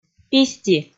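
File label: "писти", Komi, female; audio dict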